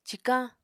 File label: "cikañ", Apatani